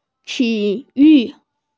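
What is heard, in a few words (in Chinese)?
"ti3yu4", Mandarin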